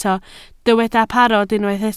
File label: "parod", Welsh